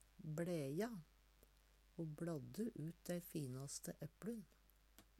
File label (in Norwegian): bleja, Numedalsmål; Verb; Ho bLadde ut dei finaste epLun.